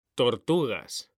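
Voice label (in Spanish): "tortugas", Spanish, male; mekanta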